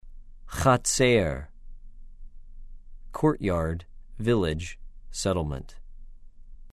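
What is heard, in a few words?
"חָצֵר", Hebrew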